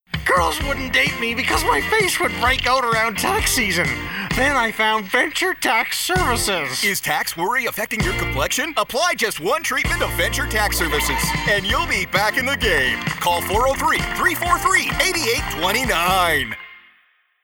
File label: Infomercial Radio Campaign; Infomercial Radio Campaign Series